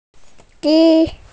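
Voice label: "ji1", Mandarin Chinese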